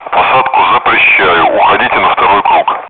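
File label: Speech, Russian, air traffic control; go around runway occupied; DCS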